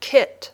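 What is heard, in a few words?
"kit", English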